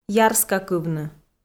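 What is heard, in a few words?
"ярскакывны", Komi-Zyrian, female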